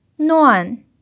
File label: "nuan4", mandarin pinyin